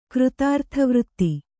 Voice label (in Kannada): "ಕೃತಾರ್ಥವೃತ್ತಿ", Kannada